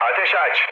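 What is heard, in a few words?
Turkish attack position, mood low, speech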